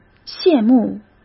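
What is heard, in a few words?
"羡慕", Mandarin Chinese; chinese flashcards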